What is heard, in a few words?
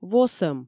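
"восӧм", Komi, female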